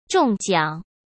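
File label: "中奖" Mandarin Chinese; chinese-flashcards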